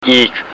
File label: "iik", Thai